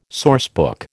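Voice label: "sourcebook", American English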